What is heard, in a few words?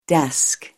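"desk", English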